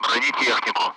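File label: "armor", Russian; Speech; Target; Allied Flight; DCS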